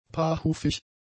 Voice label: "paarhufig", German; adjectives

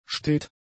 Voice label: "stet", German; adjectives

